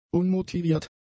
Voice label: "unmotiviert", German; adjectives

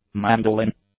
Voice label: "mandolin", English

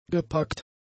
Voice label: "gepackt", German; adjectives

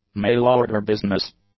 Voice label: "mail order business", English; nouns

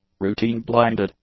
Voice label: "routine-blinded", English; adjectives

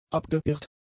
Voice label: "abgeirrt", German; adjectives

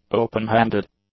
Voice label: "openhanded", English; adjectives

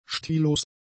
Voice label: "stillos", German; adjectives

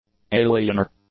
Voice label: "alienor", English; nouns

